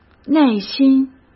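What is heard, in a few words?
"内心", Mandarin Chinese; hsk; chinese-flashcards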